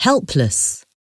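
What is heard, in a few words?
"helpless", English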